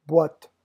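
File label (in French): "boite", French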